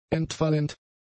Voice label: "entfallend", German; adjectives